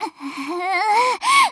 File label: attack, Voice Line, JPN; Eternal Return; Tia